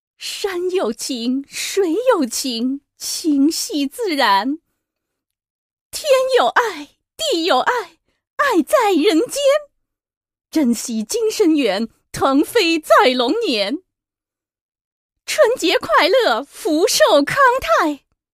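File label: Chinese, Commercial; GoLocalise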